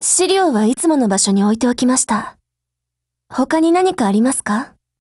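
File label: "hello", Japanese; GF Alarm; T5000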